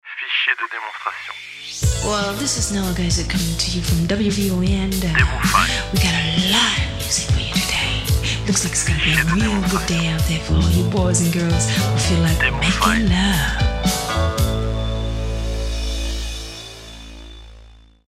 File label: US Radio Girl On Air; WBON